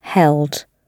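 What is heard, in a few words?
"held", British English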